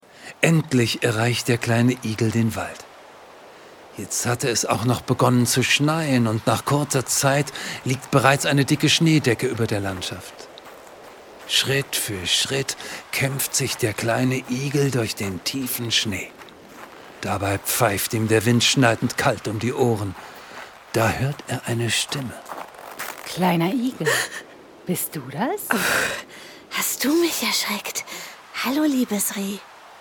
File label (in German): Hoerspielszene